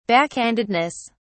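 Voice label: "backhandedness", British English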